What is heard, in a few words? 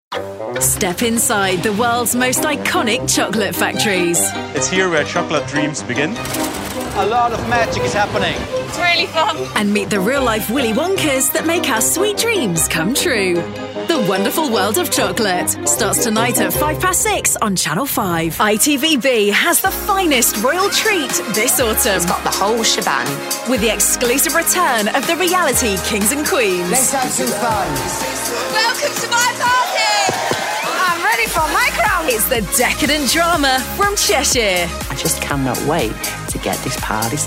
tv promos, Zesty Upbeat Promo Voice